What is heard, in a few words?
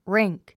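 "rink", English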